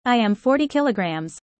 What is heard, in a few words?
user fast; how tall